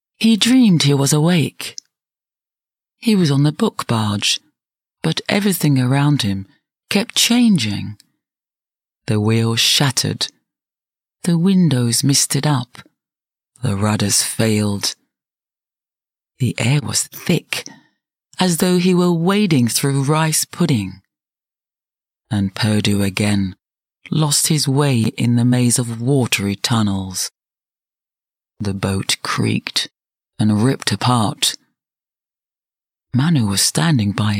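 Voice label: British English, Audiobook; GoLocalise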